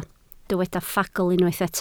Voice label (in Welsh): "ffagl", Welsh